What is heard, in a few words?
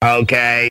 "okay", expletives